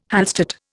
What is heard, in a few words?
"Hallstatt", Indian